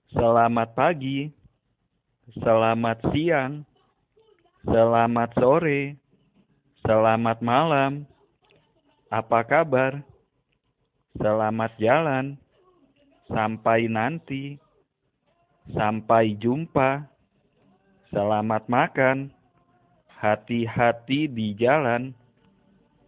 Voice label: Indonesian Greetings